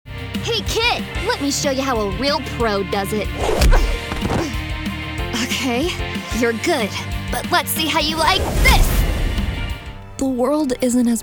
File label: character demo